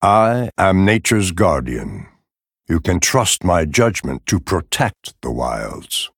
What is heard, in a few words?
Male Night Elf Bark; Malfurion Stormrage